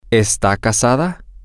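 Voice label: is she married, Spanish, male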